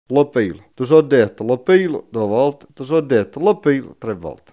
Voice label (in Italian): peli, coratino